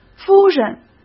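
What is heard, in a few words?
"夫人", Mandarin Chinese; chinese-flashcards; hsk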